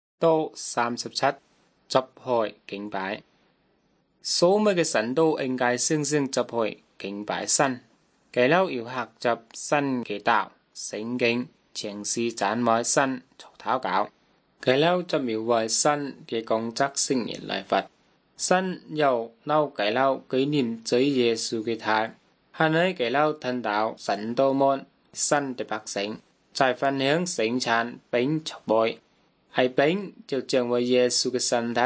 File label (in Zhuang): good news zhuang nanning fushu